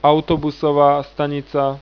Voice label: "Autobusová stanica", Slovak